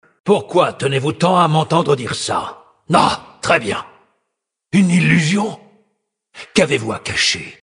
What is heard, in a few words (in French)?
VF Male Blague; Sacrenuit